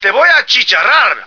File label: burn baby, male